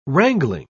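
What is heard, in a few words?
"wrangling", British English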